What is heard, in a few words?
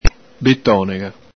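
"betonega", Veneto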